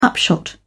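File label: "upshot", British English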